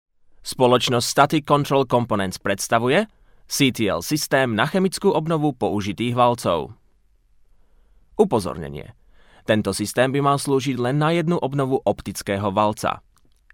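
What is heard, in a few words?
Slovak, general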